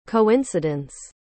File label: coincidence US